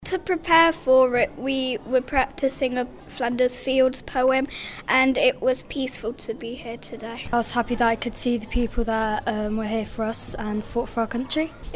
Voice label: kids vox; armed forces day flag raised in market harborough ceremony